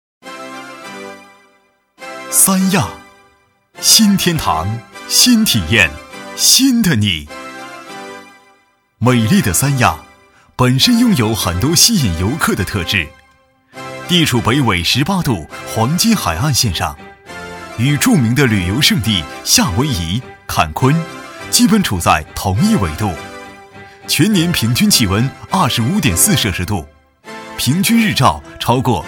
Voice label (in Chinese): 男 专题, 国语男声配音; 三亚宣传介绍